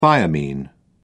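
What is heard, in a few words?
"thiamine", British English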